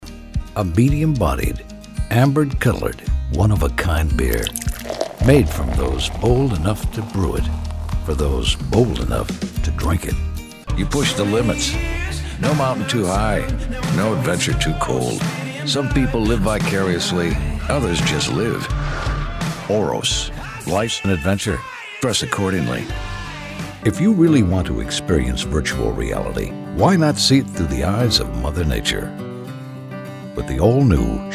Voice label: Commercials